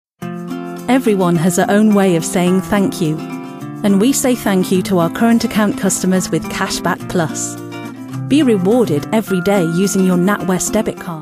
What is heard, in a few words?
MASH UP Commercial